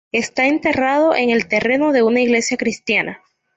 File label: spanish; enterrado; mozilla voice